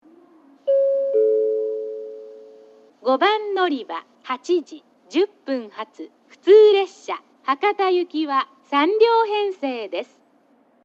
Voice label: yoshizuka local hakata; 5番のりば案内放送（普通 博多行き）; 吉塚